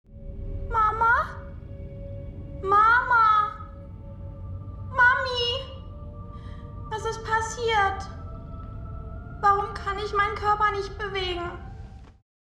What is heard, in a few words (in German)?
Synchron